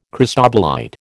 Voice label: "cristobalite", American